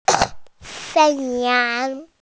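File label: "shan yang" Chinese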